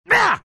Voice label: lion pain; dota